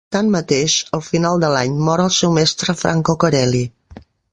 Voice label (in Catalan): "mor", catala; mozilla voice